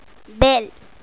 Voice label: bell, english word